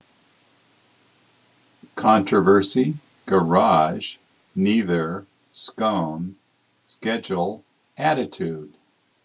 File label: california male